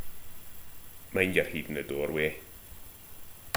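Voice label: "mind", slang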